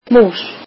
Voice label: icelandic mouse